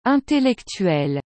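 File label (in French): "intellectuel", French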